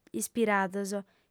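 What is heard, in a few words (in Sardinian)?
"ispirados", Sardinian, female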